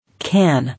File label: "Can", English